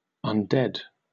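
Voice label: "undead", British English; pronunciations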